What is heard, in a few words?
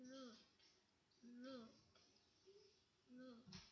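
"lute", spoken word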